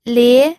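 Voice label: "lie", second tone, pinyin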